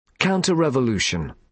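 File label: "counter revolution", British English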